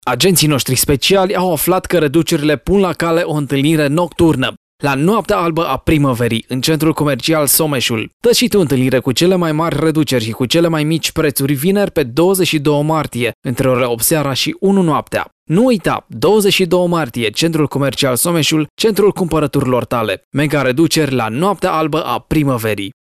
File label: spot radio